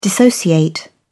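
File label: "dissociate", British English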